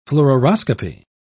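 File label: "fluoroscopy", British English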